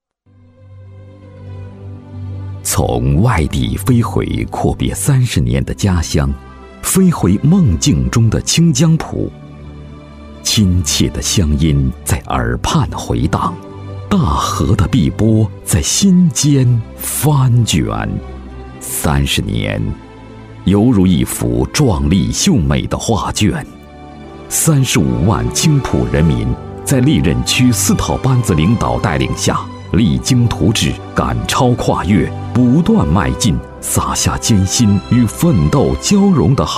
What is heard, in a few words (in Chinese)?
男 独白 抒情; 寻梦清浦